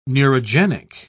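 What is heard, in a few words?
"neurogenic", British English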